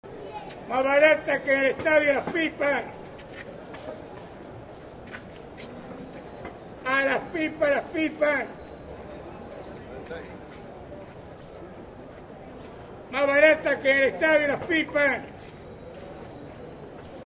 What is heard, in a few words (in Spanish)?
vendedor semillitas pipas estadio; genova y cordiviola; estadio rc